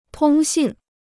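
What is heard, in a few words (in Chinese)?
"通信" Chinese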